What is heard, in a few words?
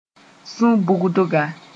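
"sʋ-bugdga", Mooré; sabre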